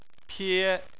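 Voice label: "pie" first tone, Chinese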